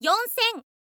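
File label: "yonsen", Japanese, girl; voice; info girl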